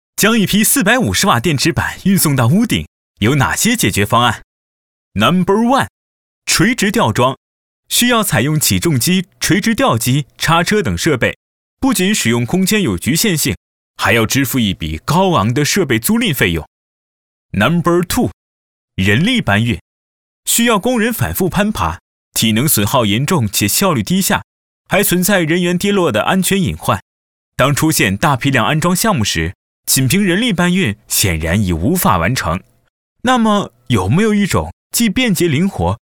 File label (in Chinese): 动画 工程 轻快; MG动画